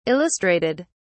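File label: "illustrated", British English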